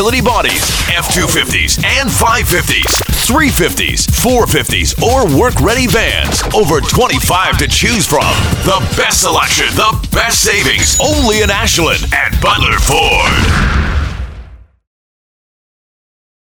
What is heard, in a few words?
Commercial Truck Season; Butler Ford